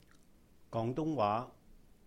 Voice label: "廣東話", Cantonese; Gwóng-Dūng-wá; gwong2 dung1 waa6